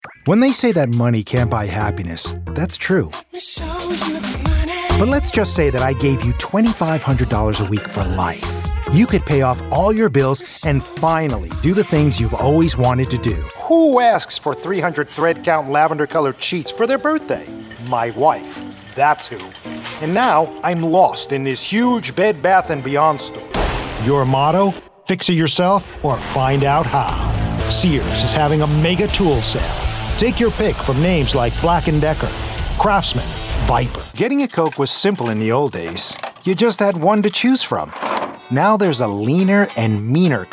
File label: Commercial